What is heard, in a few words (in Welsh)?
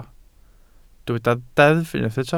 "deddf" Welsh